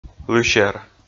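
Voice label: "лысер", Komi, male; kpv audio dict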